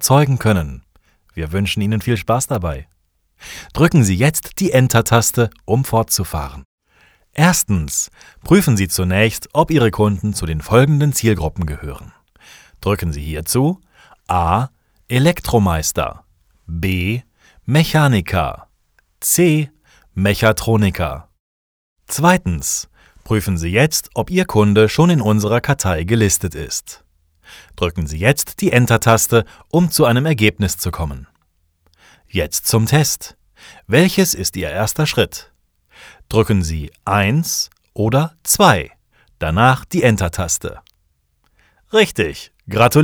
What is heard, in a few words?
e learning